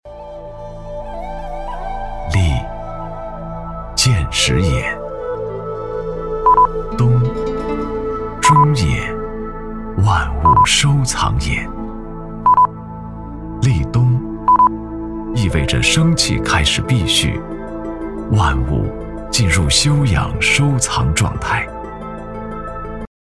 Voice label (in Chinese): 男 优美 磁性; 质感磁性; 保利冬语